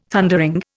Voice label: "Thundering", Indian